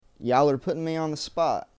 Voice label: Southern American English, Y’all are putting me on the spot.